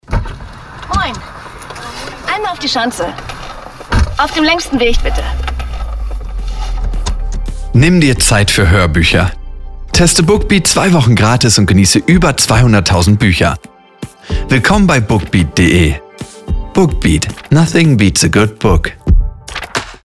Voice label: Spot; BookBeat Germany; Taxi